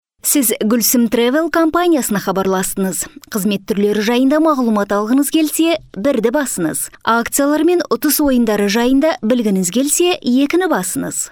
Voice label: female kaz

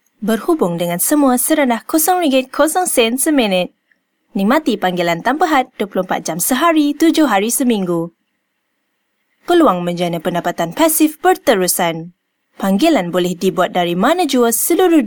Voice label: female malay

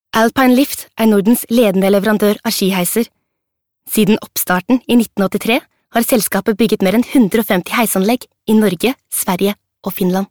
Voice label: female norwegian

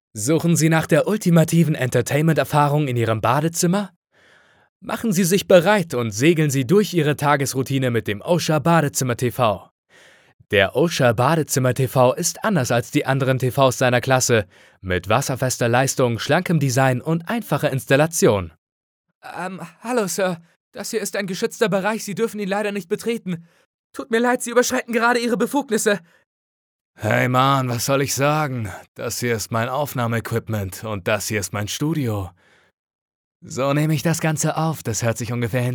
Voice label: male German

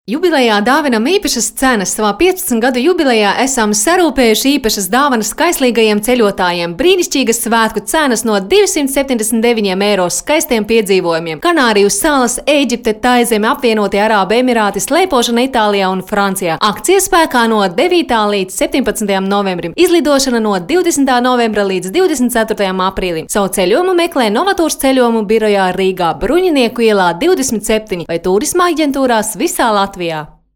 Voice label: female Latvia

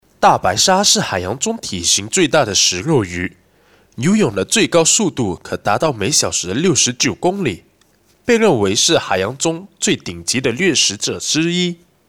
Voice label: male malay

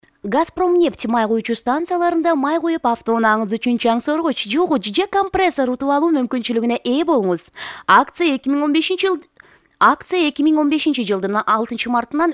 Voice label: female, Kyrgyz

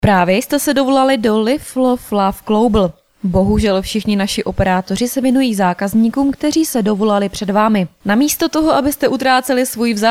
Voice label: female czech

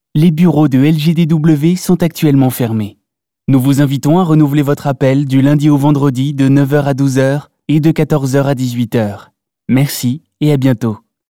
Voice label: male French

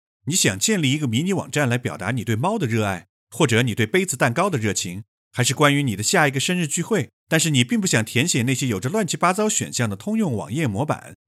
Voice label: male china

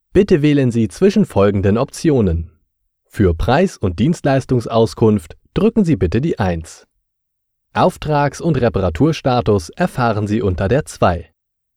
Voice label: male German

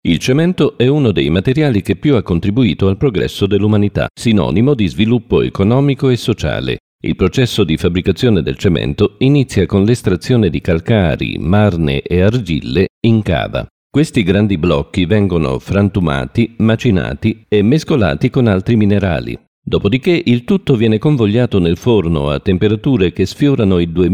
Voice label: male it

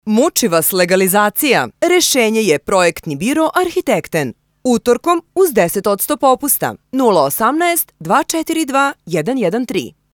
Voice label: female serbian

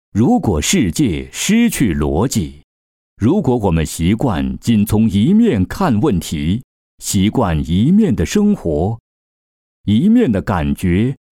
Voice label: male china

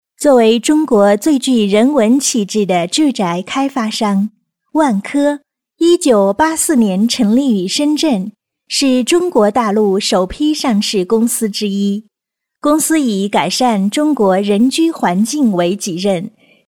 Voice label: female china

